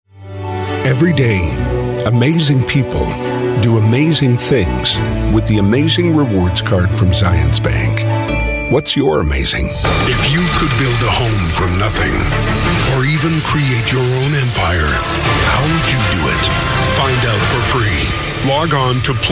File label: Commercial